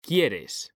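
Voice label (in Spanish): "QUIERES", Spanish; mekanta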